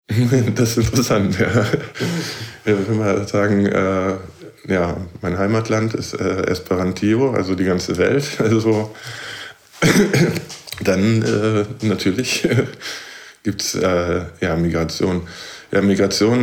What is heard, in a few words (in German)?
deutsch esperanto